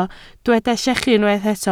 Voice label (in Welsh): "llechu", Welsh